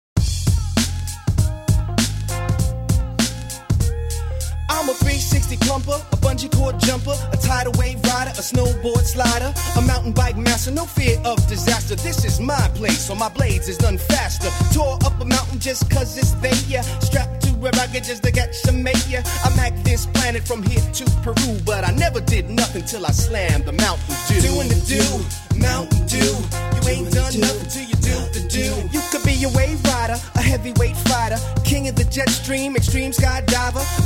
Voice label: Commercial; Mountain Dew